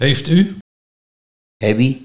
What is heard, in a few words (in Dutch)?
"Heeft u - Hebbie", Nieuw lekkerlands dialect